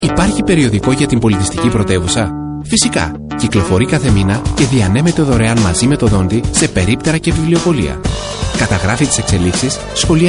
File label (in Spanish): locutor griego; Greek male voiceover